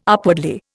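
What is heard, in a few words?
"upwardly", Indian English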